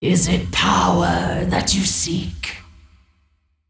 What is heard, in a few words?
Female Dragon Bark